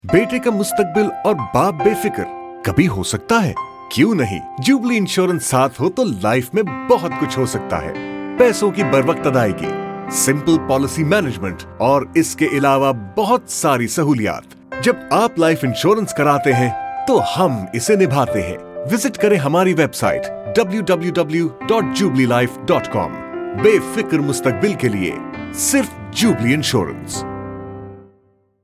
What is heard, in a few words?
Airport Spot; Jubilee Easy Claim